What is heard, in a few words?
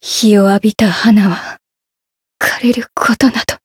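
Voice Japanese; felmina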